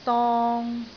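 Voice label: "dong3", Cantonese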